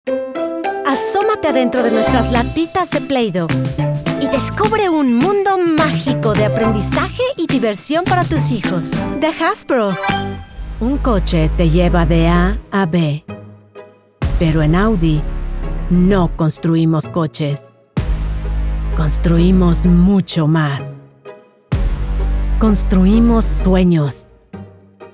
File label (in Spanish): Comercial Español